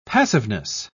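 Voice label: "passiveness", British English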